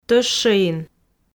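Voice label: "тӧщӧин", Komi, female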